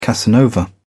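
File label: "casanova", British English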